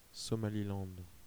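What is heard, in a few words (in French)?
"Somaliland", French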